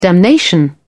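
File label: "damnation", British English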